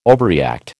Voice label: "overreact", American

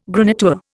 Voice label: "graniteware", Indian

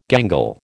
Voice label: "gangle", American

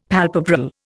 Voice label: "palpebral", Indian; Dictionary

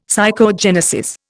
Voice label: "psychogenesis", Indian; Dictionary

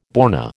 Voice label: "born", American

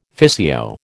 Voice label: "physio", American

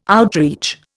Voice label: "outreach", Indian

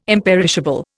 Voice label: "imperishable", Indian

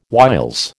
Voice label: "whiles", American

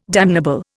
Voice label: "damnable", Indian

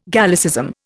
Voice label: "Gallicism", Indian

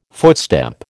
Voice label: "footstep", American

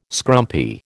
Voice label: "scrumpy", American

